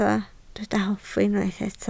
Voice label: "hoffi", Welsh